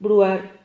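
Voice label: "bruar", Spanish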